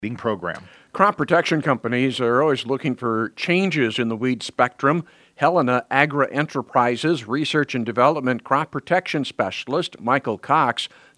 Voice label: air check